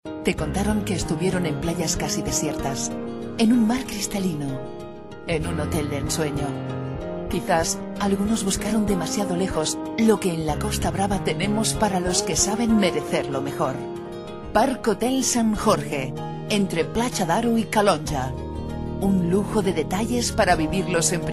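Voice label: Spanish, female, commercial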